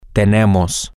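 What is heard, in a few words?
we have, Spanish, male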